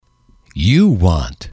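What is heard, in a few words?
you want, English, male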